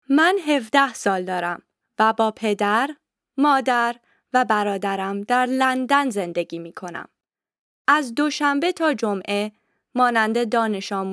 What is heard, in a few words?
text farsi